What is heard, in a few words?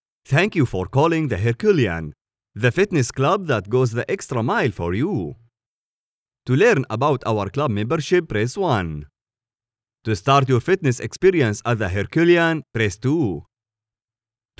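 Arabic English male IVR; english in foreign accent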